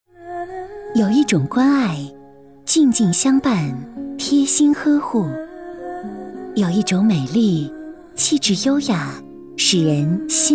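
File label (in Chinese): 专题品牌干净澄澈; 专题爱莲品牌干净澄澈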